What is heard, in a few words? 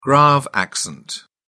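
"grave accent", British English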